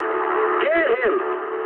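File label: English attack position mood med; War Thunder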